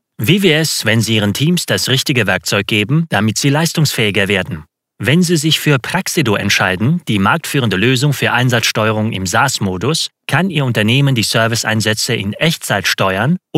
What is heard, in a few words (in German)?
e-learning mix DT